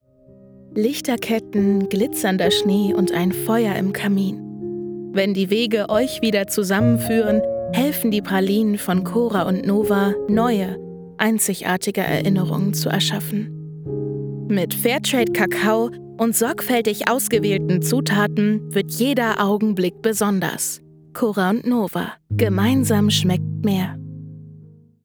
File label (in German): Pralinenwerbung